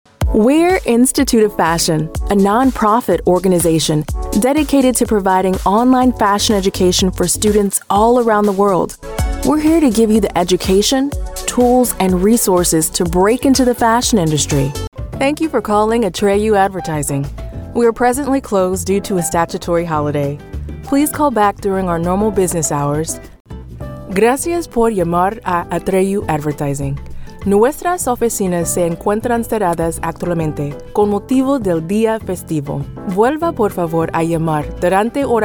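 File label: english american interactive voice response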